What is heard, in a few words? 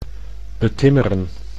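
"betimmeren", Dutch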